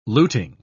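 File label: "luting", British English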